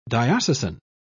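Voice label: "diocesan", British English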